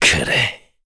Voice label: Happy, kr; voices; heroes; Kain; kingsraid